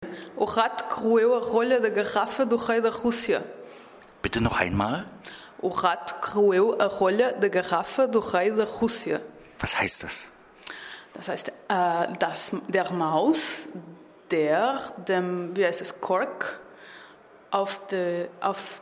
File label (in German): portugiesisch